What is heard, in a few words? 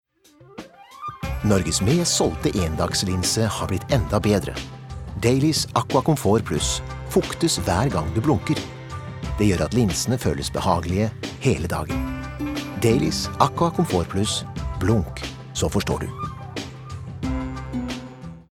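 Norwegian commercial, mann; norsk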